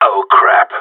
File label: bomb fallback, radio; GSG9